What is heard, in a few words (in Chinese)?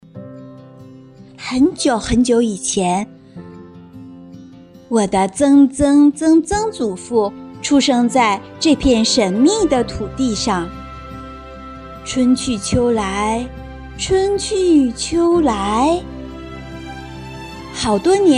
纪录片片段音频; 我们诞生在中国纪录片片段音频